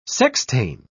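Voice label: "sextain", British English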